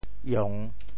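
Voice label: "iong3", Teochew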